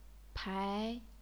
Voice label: "pai2", chinese pinyin